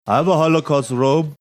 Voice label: saying something really slurred